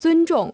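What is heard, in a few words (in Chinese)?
"尊重" Chinese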